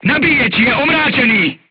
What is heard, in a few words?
voice message charger contused, crew tanks czech